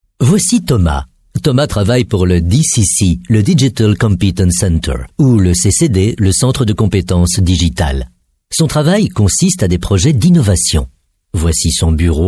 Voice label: french be m e-learning; voice demos

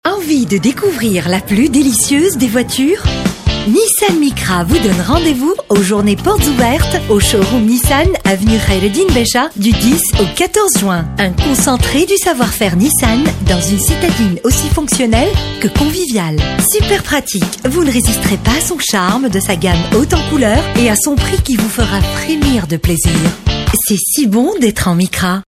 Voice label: french tunisian f commercial; voice demos

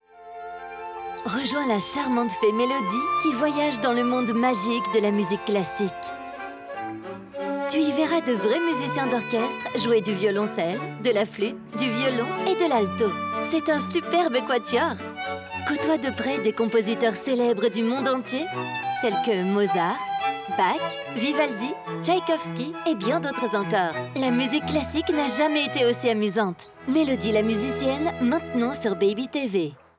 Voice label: french f commercial; voice demos

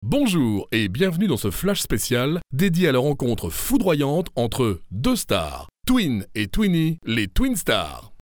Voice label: french m commercial; voice demos

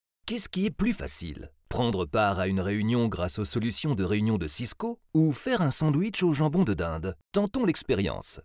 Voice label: french m commercial; voice demos

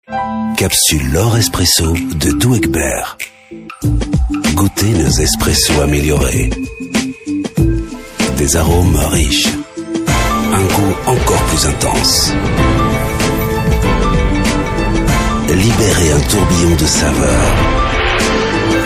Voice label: french be m commercial; voice demos

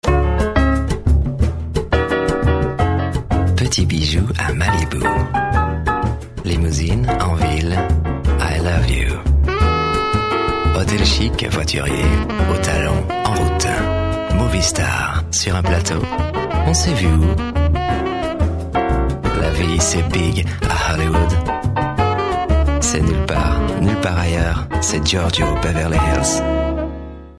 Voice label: french be m commercial; voice demos

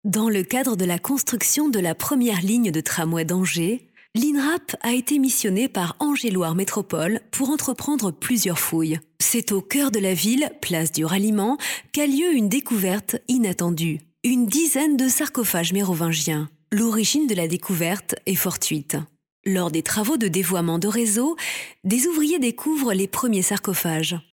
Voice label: french f narration; voice demos

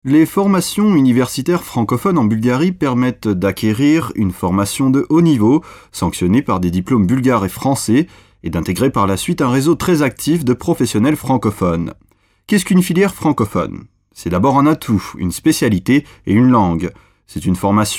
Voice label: french m narration; voice demos

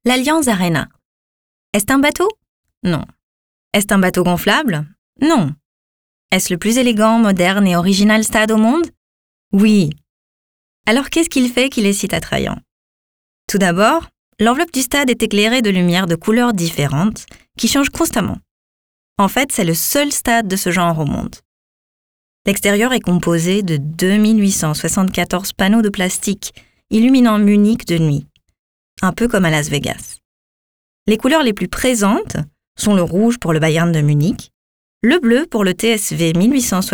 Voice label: french f commercial; voice demos

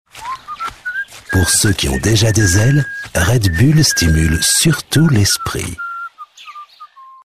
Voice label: french be m commercial; voice demos

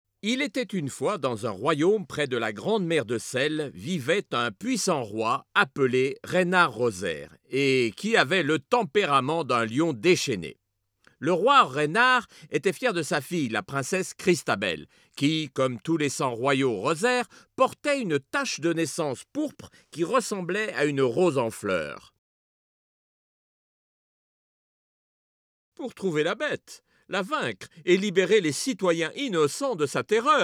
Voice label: french m character; voice demos

